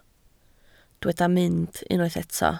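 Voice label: "mynd" Welsh, spoken word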